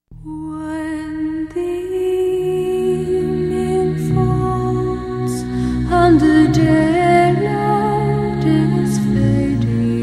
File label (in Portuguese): Amizade Com Pedido de Desculpa Mística, F